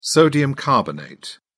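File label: "sodium carbonate", British English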